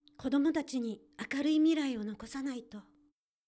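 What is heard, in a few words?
female; クール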